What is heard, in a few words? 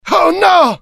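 fear grenade, IT; mohaa; dialogue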